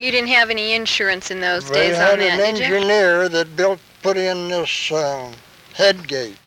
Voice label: Oral history with 88 year old white male Fruita Colorado, american english dialect recordings